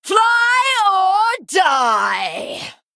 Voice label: female harpy attack